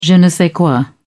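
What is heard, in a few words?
"je ne sais quoi", British English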